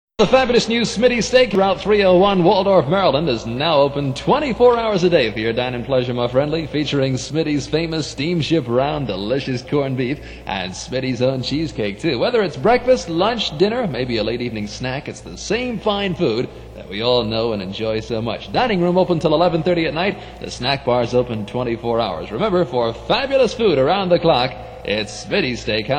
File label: spot; smittys steak house